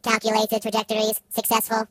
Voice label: "Excellent", Robot voices